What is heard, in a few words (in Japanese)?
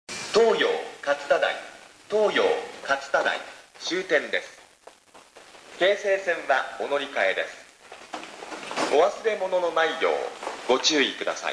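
駅放送, 旧放送 2番線 接近; toyo-katsutadai; 到着連絡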